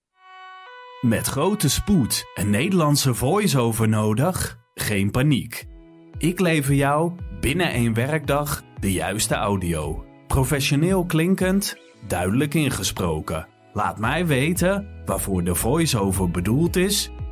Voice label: 荷兰语男 外语 小语种 磁性

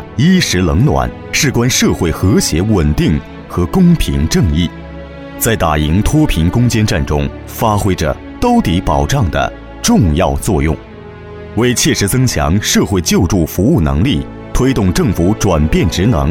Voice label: 男国 专题 政府 民政救助 浑厚

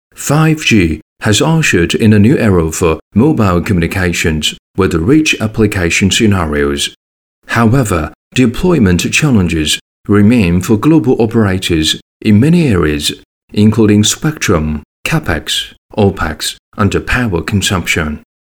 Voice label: 男英 外语 国内英语 专题 大气; 专题中国

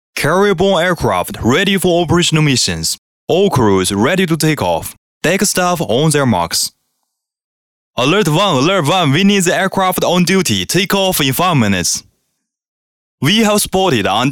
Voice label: 男英 动画 角色 角色音舰长; 英语

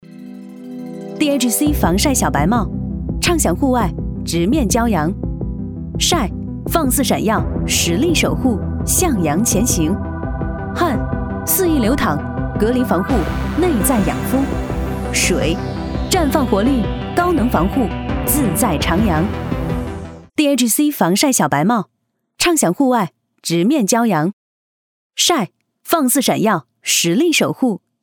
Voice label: 广告 化妆品 轻快, 女国; DHC

